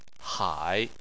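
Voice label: "hai", Chinese, third tone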